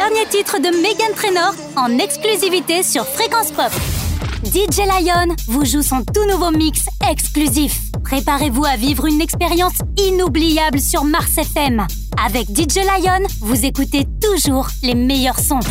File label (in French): Radio